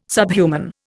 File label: "subhuman", Indian